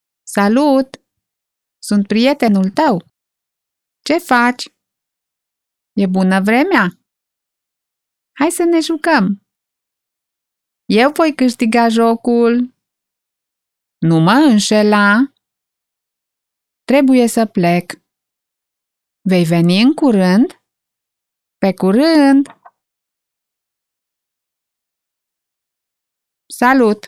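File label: Romanian woman 50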